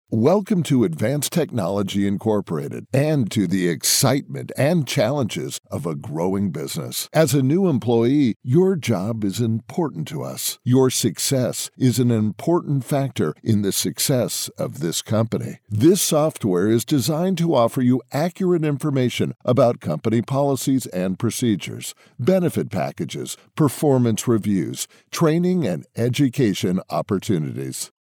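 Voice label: American English, male, voice over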